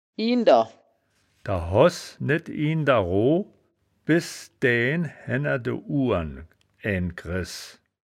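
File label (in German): "ihnder", wittlicher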